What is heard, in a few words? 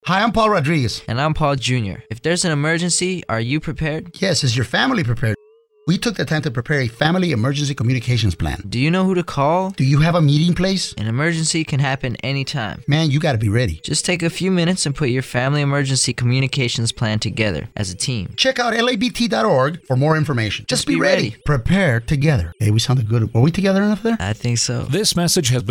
Radio AD English